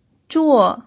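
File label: "zhuo4", Mandarin Chinese pinyin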